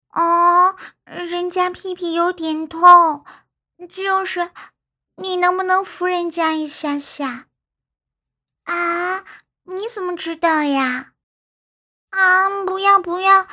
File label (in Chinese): 夹子音